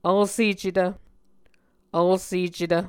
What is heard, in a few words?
"vlsecetv", Muscogee